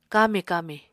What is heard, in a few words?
"kami-kami", Apatani